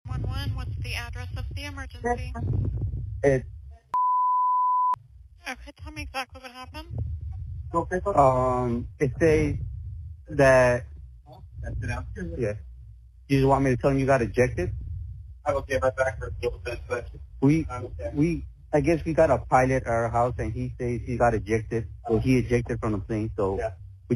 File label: F35 CRASH 911 CALL